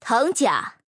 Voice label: female

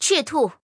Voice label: female; chitu

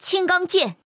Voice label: female

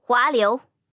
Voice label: female; hualiu

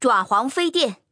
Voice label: "zhuahuang", female

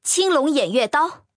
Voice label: female; qinglong

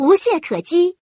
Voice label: nullification, female; FreeKill; standard cards

